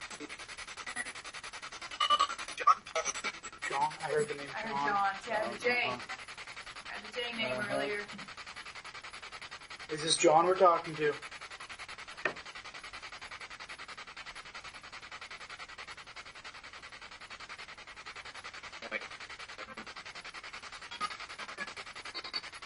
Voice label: Party Room Spirit Box; the brewerie at union station investigation